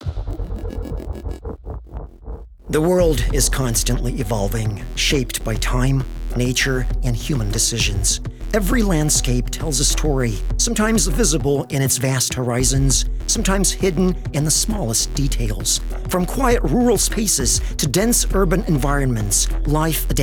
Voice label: male light usa accent narration; Devine Voices